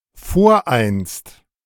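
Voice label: "voreinst", German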